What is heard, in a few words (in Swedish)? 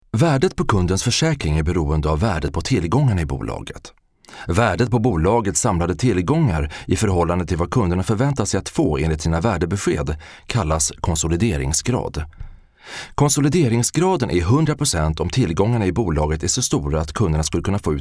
svensk man